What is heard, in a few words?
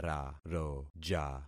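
"raroja", concatenated syllables; Affixing experiment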